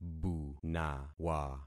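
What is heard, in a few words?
"bunawa", concatenated syllables; Affixing experiment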